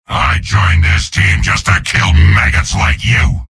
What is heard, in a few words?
soldier taunts; tf2; garrysmod; mvm